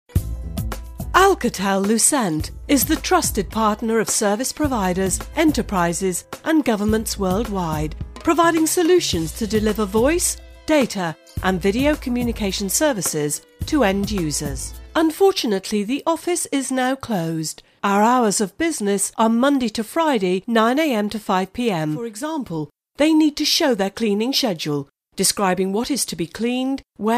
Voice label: locutora inglesa english voiceover

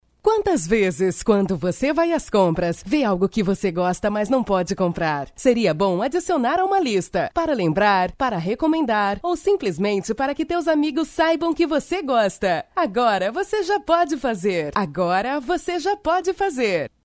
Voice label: locutora brasil brazilian voiceover

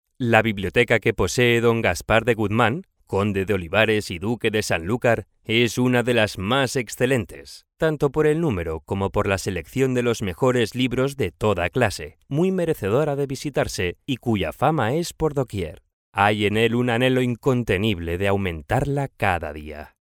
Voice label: locutor spanish voiceover

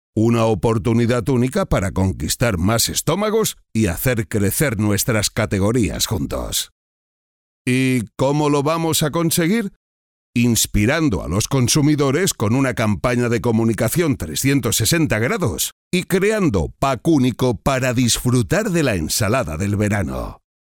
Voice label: locutor spanish voiceover

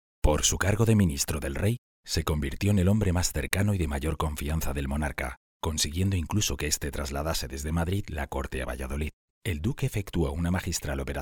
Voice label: locutor spanish voiceover

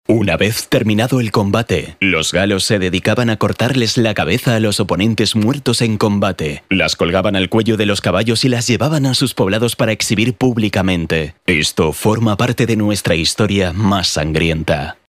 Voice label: locutor spanish voiceover

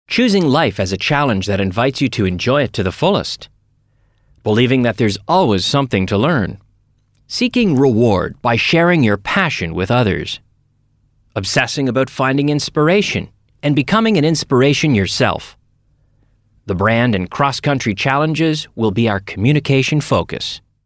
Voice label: locutor ingles canada canadian english voiceover

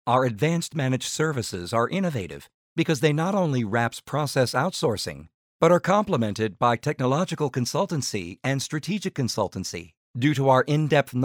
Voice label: locutor norteamericano english american voiceover

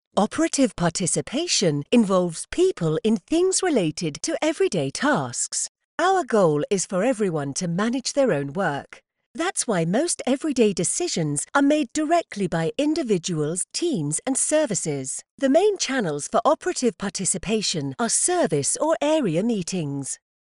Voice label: locutora inglesa english voiceover

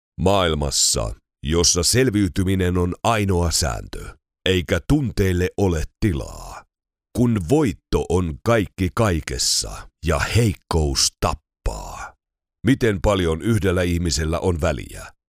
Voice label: finnish voiceover locutor finlandia

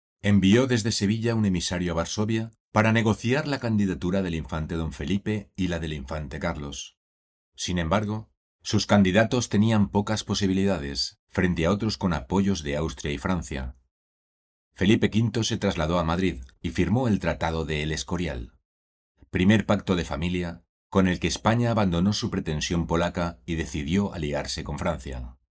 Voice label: locutor spanish voiceover

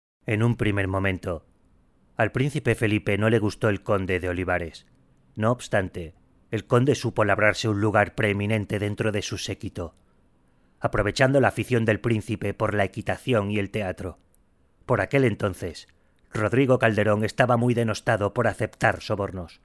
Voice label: locutor spanish voiceover

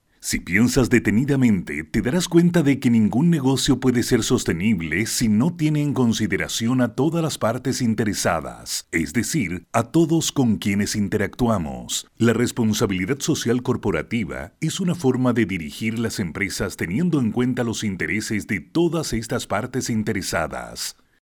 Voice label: locutor chile chilean voiceover; locutores chilenos

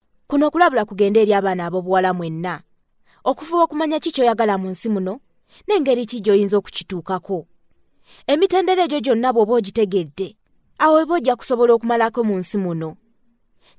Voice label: luganda voiceover locutora luganda

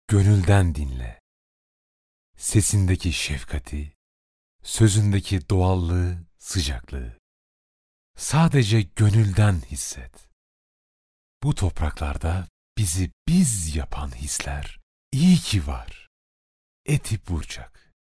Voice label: locutor turco turkish voiceover

